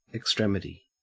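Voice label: "extremity", Australian English; pronunciations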